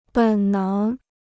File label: "本能", Chinese